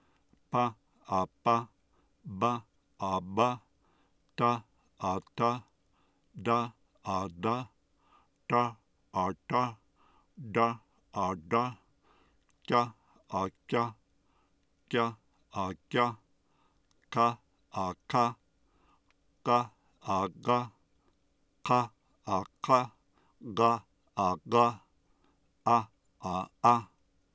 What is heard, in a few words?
plosives sounds